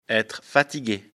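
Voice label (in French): "fatigue", French; afrikalan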